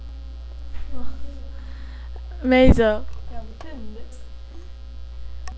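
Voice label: "major", spoken word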